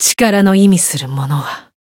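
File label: Voice growth, Japanese; clarte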